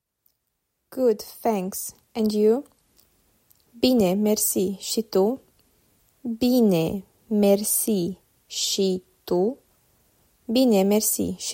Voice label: "Good thanks and you", Romanian